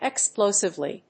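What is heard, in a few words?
"explosively", English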